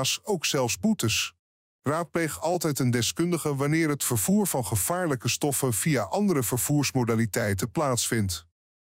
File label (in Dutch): Verschillende voorschriften Voice over